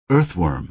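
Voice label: "earthworm", American English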